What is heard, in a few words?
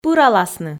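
"пыраласны" Komi, female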